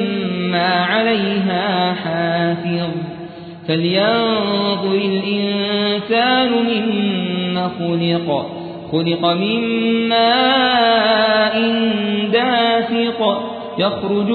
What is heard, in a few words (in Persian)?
ترتیل سوره طارق